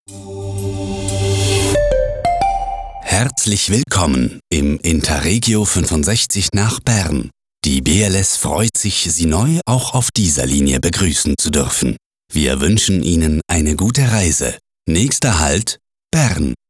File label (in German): DURCHSAGEN DE HALT BERN; BLS; IR65; BIEL-BERN